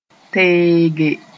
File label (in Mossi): "teege", moore